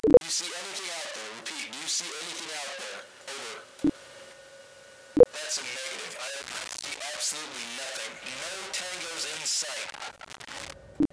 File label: radio; usmc